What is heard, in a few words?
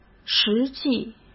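"实际", Mandarin Chinese; hsk; chinese-flashcards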